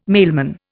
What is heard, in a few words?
"mailman", Indian